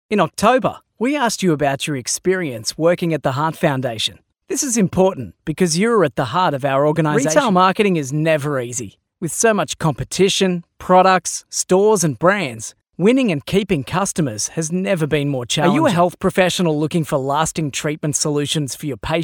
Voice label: Natural